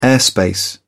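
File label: "airspace", British English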